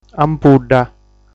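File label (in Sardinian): "Ampudda", Sardinian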